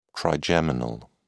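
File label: "trigeminal", British English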